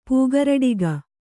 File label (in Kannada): "ಪೂಗರಡಿಗ", kannada